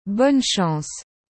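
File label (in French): "Bonne chance", French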